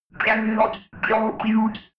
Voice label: robot voice cannot compute fx